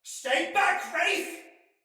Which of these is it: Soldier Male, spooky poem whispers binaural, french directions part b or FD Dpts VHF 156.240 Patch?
Soldier Male